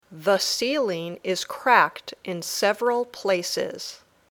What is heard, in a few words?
Dictation